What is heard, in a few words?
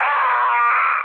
damaged pilot mood med, speech pol; War Thunder